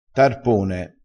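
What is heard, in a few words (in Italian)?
"tarpone", vocabolario dialettale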